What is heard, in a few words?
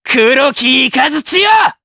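Skill, Japanese; Neraxis; kingsraid; jp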